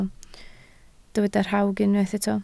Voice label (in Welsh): "rhawg", Welsh